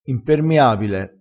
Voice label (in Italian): "impermiabile", crespinese, vocabolario dialettale